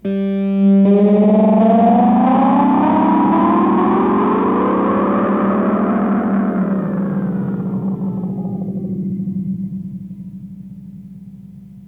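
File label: radio advert; E-MU Producer Series Vol. 8 – Platinum Phatt; EP FX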